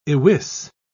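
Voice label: "iwis", British English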